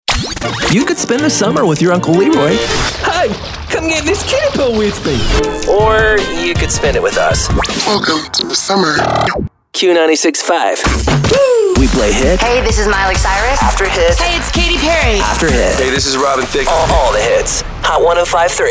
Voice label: CHR Imaging